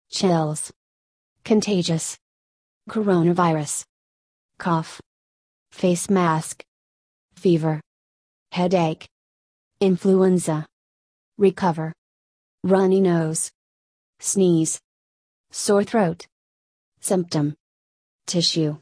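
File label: American; colds flu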